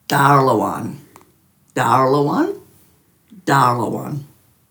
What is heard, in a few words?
"daarlawan", Dhurga